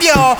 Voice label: YOYO VOX R, Big Beat Samples; AKAI; SPEAKS KIT